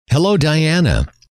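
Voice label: "hello", male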